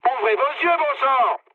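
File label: player friendly fire, mood high, French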